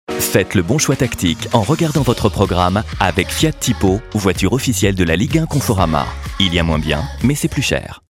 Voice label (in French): droit, comédien voix off parrainage; FIAT naturel